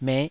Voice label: "meh5", Taiwanese syllable

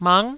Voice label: "mang9", Taiwanese

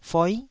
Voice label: "foi9", taiwanese syllables

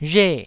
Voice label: "rhe4", taiwanese

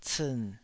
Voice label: "ciin3", Taiwanese

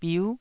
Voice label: "biu9", taiwanese Syllables

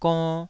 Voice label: "gonn2", taiwanese

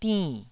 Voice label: "dinn4", taiwanese syllable

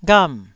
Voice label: "gham4", Taiwanese syllable

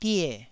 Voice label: "die4", taiwanese syllable

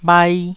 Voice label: "bhai1", taiwanese

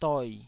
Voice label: "doi3", Taiwanese

